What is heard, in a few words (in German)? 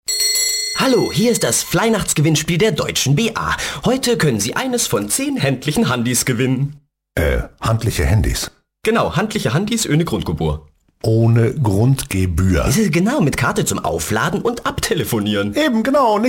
Werbung Witzig